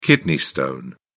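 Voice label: "kidney stone", British English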